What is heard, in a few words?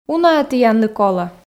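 "уна-ӧ тіянлы колӧ", Komi, female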